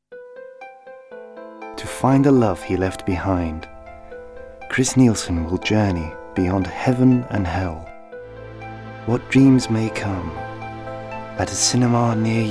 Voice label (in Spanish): locutor británico